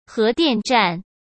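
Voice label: "核电站", Mandarin Chinese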